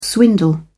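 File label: "swindle", British English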